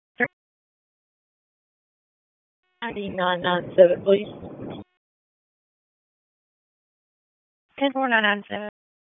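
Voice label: Raleigh Police Northeast District Dispatch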